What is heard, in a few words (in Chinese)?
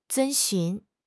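"遵循", Chinese; HSK6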